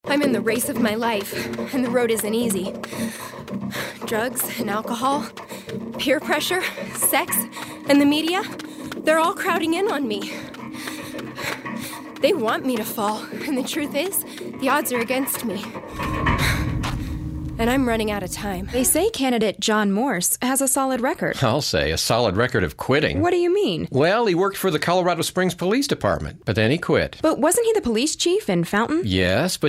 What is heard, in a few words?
Commercial